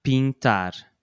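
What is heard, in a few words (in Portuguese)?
"pintar", português europeu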